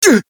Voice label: Voice damaged